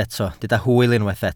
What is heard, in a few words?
"hwyl", Welsh